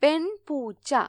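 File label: "cat female", Malayalam; gcompris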